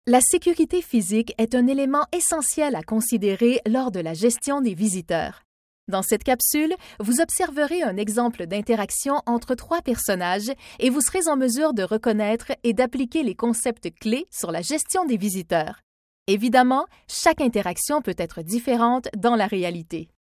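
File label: Canadian French, E-learning; GoLocalise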